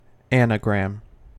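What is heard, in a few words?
"anagram", US English; pronunciations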